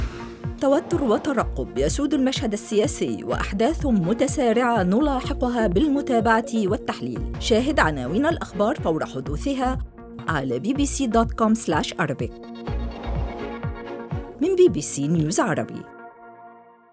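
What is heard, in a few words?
Arabic female Middle East War Scene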